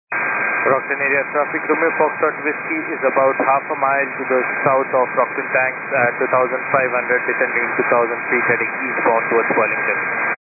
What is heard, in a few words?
122.925 MHz; scanner radio